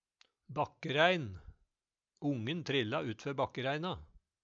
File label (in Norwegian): bakkeraein, Numedalsmål